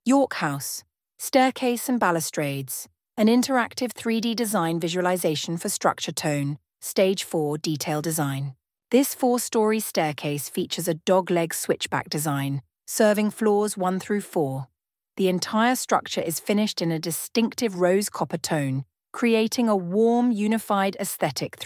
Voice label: voiceover; showreel